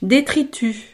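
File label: "detritus", French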